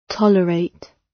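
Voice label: "tolerate", British; Flora and fauna, agriculture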